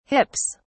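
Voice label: "hips", British English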